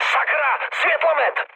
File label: searchlite, speech cze, mood med; War Thunder